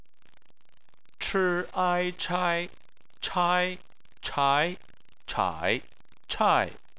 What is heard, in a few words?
"chai", Chinese